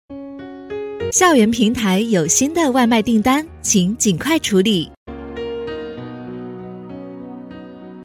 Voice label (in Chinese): 女 语音播报